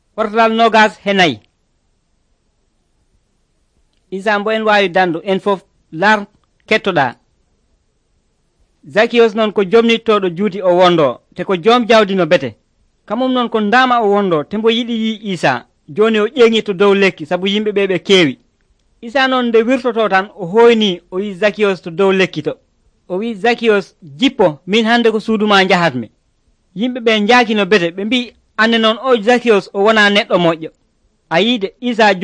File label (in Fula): pulaar fulacunda, drama